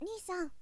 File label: nisan, shonen